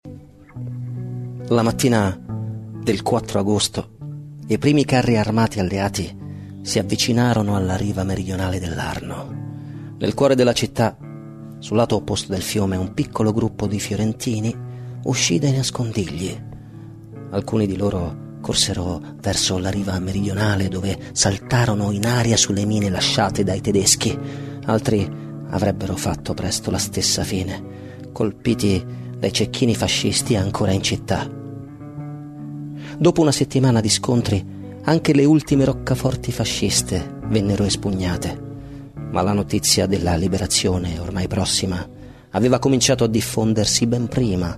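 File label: Italian male Narration; voice over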